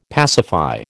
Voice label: "pacify", American